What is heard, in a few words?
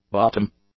"bottom", English; adjectives